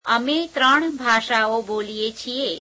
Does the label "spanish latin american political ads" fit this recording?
No